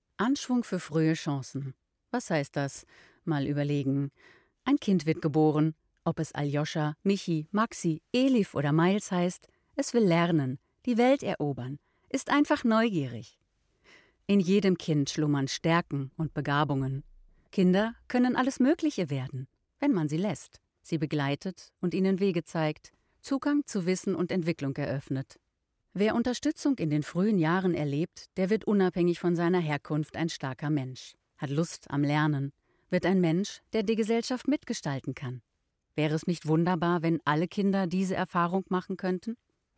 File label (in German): Imagefilm